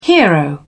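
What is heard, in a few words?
"hero", British English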